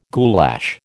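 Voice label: "goulash", American English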